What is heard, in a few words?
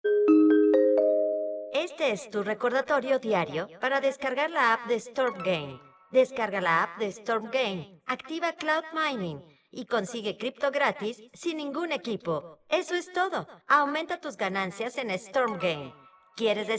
ES-LA airport robot